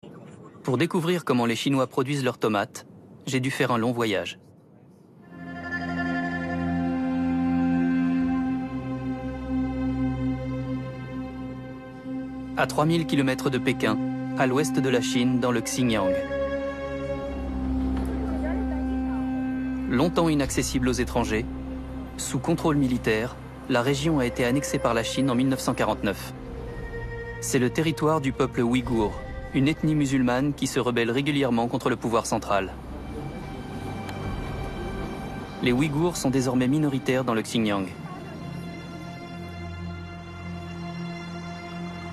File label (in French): Narration